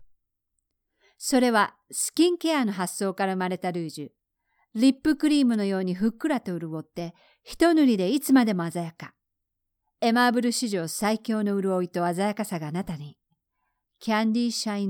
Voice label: cosmetic commercial